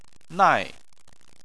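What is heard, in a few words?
"nai" fourth tone, Chinese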